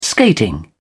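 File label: "skating", British English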